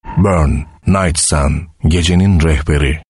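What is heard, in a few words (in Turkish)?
otoriter, seslendirme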